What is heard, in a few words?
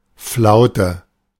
"Flaute", De